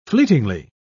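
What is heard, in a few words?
"fleetingly", British English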